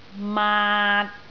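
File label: "maat3", Cantonese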